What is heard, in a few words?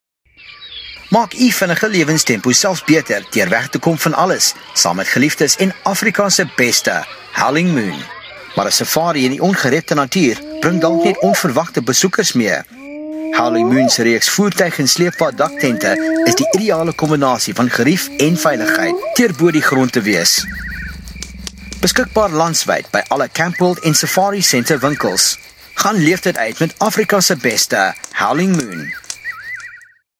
Afrikaans